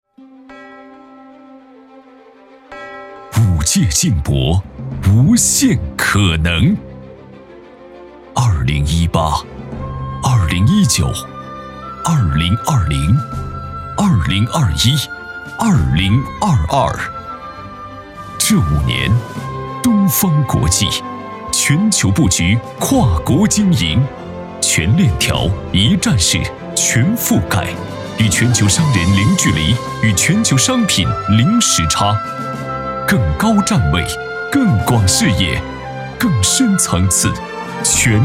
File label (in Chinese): 男 专题汇报类; 上海东方国际五年风云录